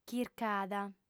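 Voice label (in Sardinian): "chircada", female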